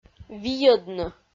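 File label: "вийӧдны", Komi, female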